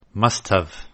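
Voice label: "must've", English contraction; Contractions Pronunciation Practice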